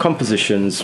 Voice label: LoFi Words, LoFi Vocals

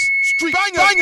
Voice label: STREET BANGERRR, Hip-Hop Vocals Samples

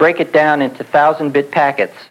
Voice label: LoFi Phrases, LoFi Vocals; Vengeance Samples

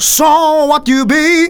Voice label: Phrase Male; Vengeance Samples

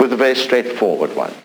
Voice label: LoFi Phrases, LoFi Vocals; Vengeance Samples

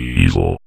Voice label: Vocoder Words; Vengeance Samples

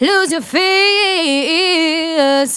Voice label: Phrase Female; Vengeance Samples; Phrases

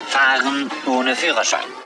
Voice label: LoFi Phrases, LoFi Vocals; Vengeance Samples

